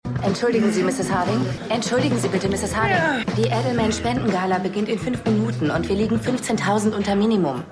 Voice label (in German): Assistentin; Falling Water